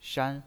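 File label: "shan", Mandarin Chinese pinyin, first tone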